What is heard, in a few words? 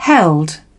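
"heft", British English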